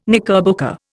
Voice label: "knickerbocker", Indian